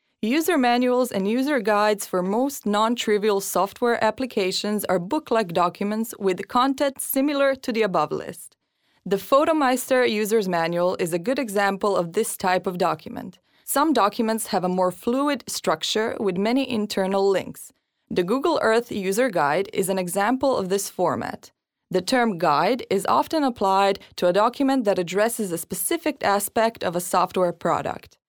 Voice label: female croatian voice over english

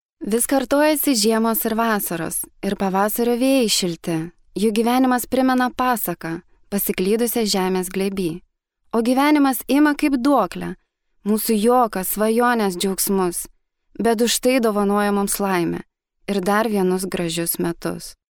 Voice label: female lithuanian voice over upbeat

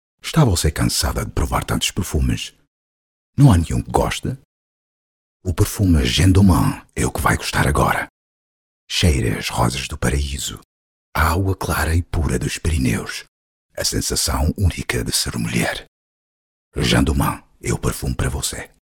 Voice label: male portuguese voice over talent sales, portugal

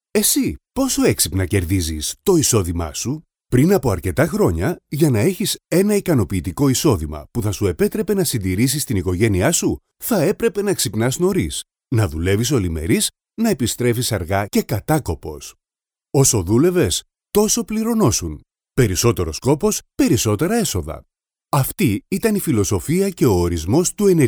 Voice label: male greek voice over talent upbeat

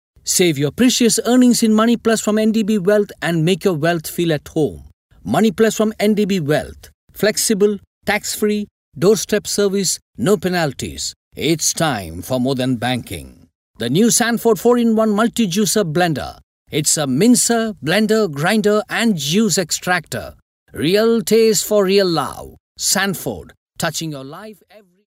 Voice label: male indian voice over talent sales

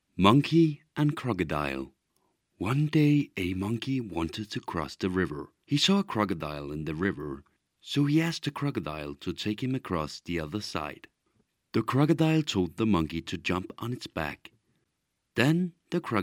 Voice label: male danish voice over talent english

